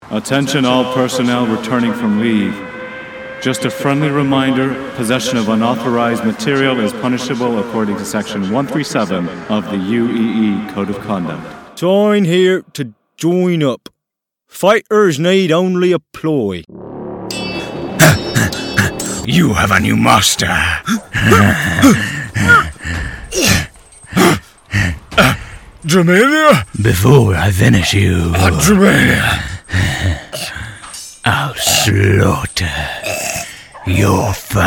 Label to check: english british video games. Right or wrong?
Right